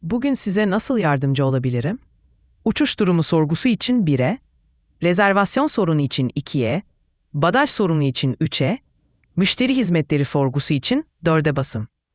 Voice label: question turkish